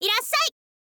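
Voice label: "irasshai", girl, Japanese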